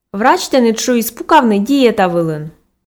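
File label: "врач тэныд шуис пукавны диета вылын", Komi, female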